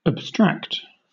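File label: "abstract", British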